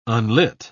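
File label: "unlit", English, British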